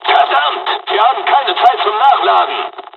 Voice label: dialog, dialogs german; War Thunder; midway